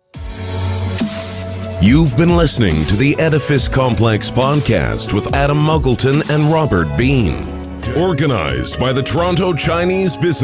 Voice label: 外籍英男 浑厚

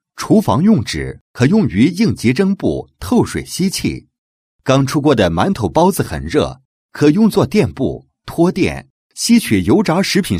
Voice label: 男 宣传广告 厨房用纸宣传介绍

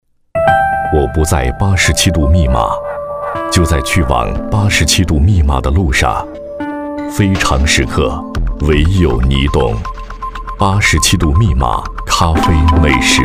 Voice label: 男80 广告 咖啡, 国语男声配音; 87度密码咖啡; 央视农广

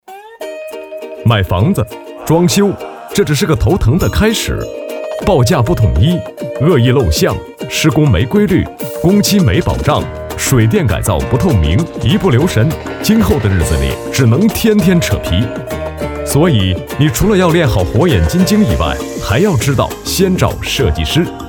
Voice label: 男, 独白; 米空间

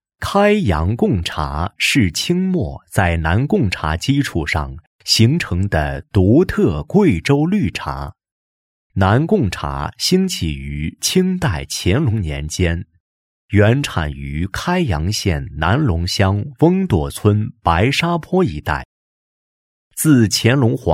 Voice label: 男,纪录片,贡茶; 开阳

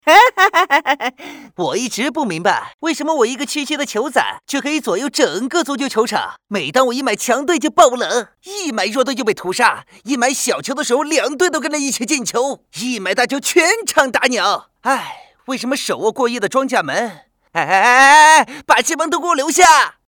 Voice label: 男-模仿; 周星驰; 球仔

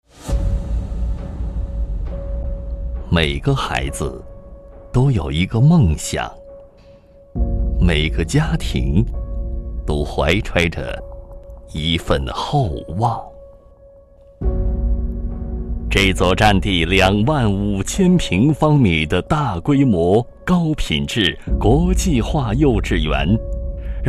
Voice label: 男 专题 深情 大气 厚重; 著名跨国文教集团

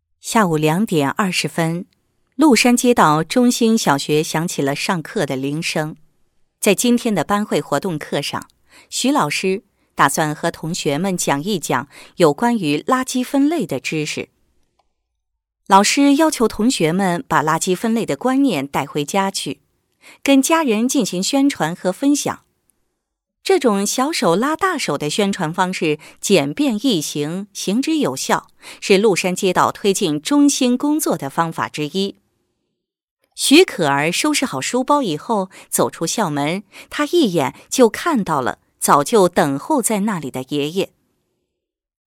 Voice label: 女, 宣传片 垃圾分类专题宣传片配音; 鹿山街道

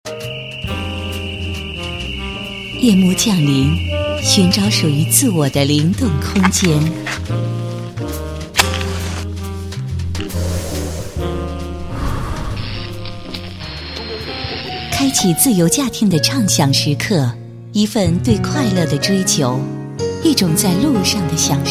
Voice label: 女 专题 电台片花样, 国语女声配音; 全能配音

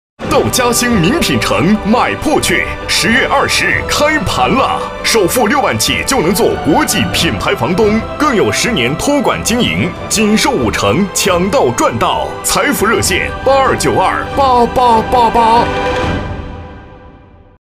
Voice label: 男80 广告; 嘉兴名品城; 央视农广

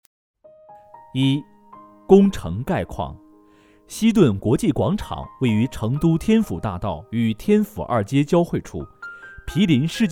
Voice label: 男 项目解说, 国语男声配音, 浑厚; 成都希顿国际广场项目

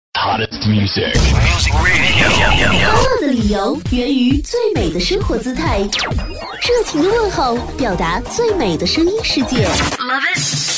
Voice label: 女 片花 相声串串烧 片花

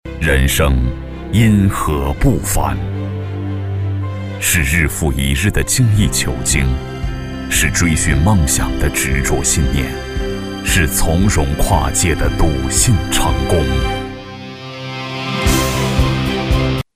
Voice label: 男 微电影; 人生-因何不凡

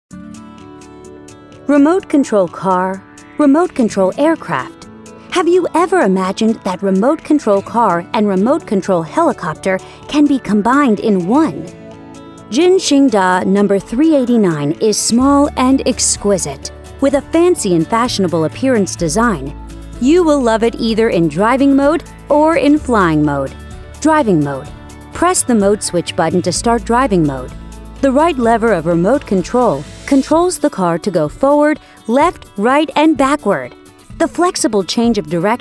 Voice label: 外籍女 玩具介绍

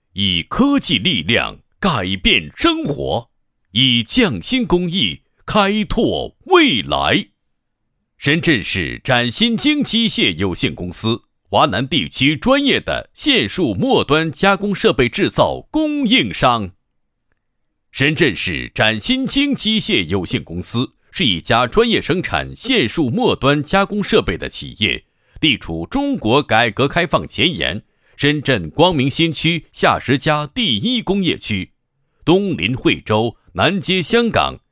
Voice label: 男, 企业宣传; 深圳市展新精机械有限公司

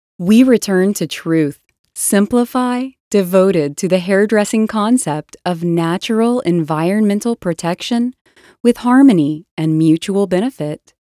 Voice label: 护发素广告配音; 博柔; BEAVER

